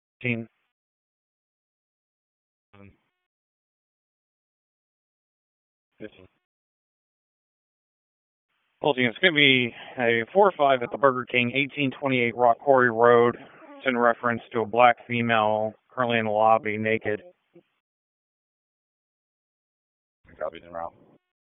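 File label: Police District Dispatch, p25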